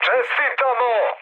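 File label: Serbian player killed enemy mood high, speech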